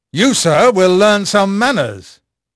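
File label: English male; Pirates Of The Caribbean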